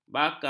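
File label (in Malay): "bakal", Malay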